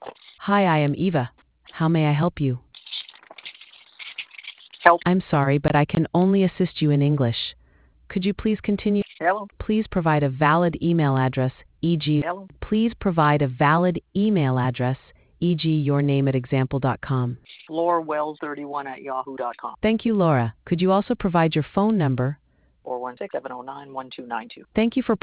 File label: conversation